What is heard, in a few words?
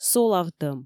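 "солавтӧм", Komi, female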